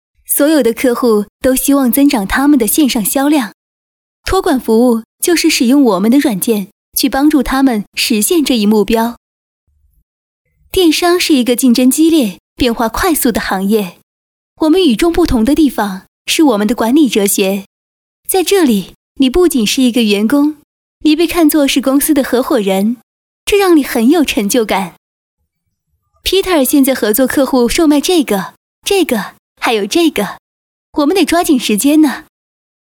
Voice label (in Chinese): 女 角色 外国人的感觉, 国语女声配音